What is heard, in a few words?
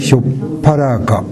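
"yopparaga", Minamiyamagata dialect Japanese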